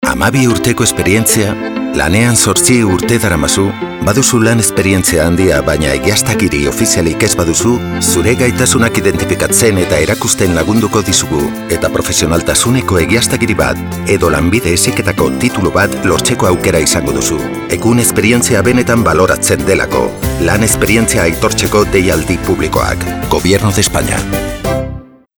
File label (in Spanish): EUSKERA RAD; cuna radio; SEPE